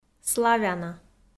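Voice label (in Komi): "славяна", Komi, female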